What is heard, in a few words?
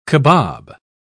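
"cabob", British English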